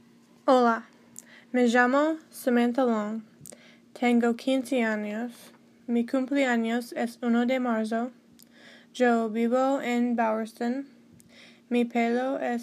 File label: spanish